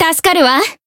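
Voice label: Voice healed, Japanese; kikyou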